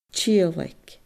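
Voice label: "tiodhlaic", Scottish Gaelic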